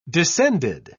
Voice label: "descended", pronounce